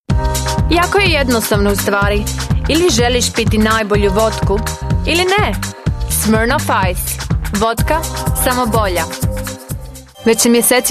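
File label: Serbo-Croatian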